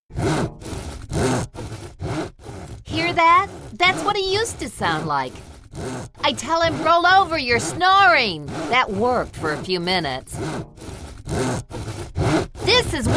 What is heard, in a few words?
sleep radio